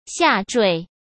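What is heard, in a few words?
"下坠", Mandarin Chinese; hsk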